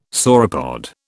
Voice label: "sauropod", British

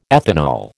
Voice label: "ethanol", American

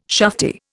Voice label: "shufti", Indian

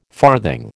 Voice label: "farthing", American

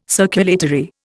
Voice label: "circulatory", Indian

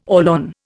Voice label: "Orlon", Indian

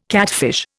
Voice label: "catfish", Indian

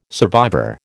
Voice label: "survivor", American

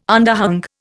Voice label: "underhung", Indian

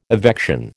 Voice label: "evection", American

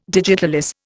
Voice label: "digitalis", Indian

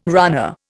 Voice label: "runner", Indian

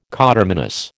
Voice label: "coterminous", American

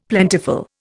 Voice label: "plentiful", Indian; Dictionary

